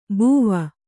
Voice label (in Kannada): "ಬುವಾ", Kannada